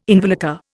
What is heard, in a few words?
"involucre", Indian